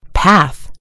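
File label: "path", American English; gcompris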